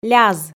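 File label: "ляз", Komi, female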